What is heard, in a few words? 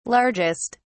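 "largest", British English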